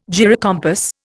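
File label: "gyrocompass", Indian English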